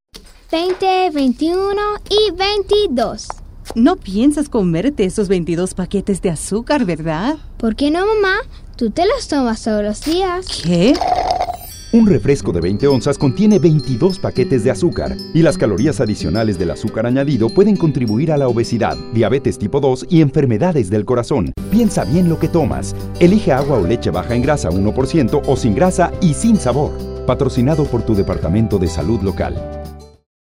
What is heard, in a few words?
Spanish Radio